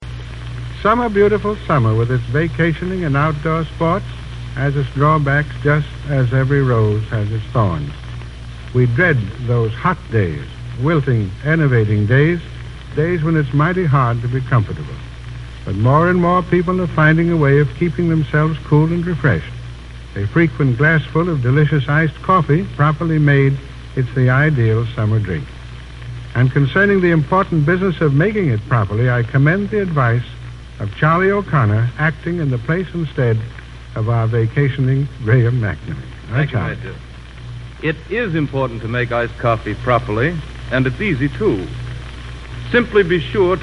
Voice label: Commercial; Chase & Sanborn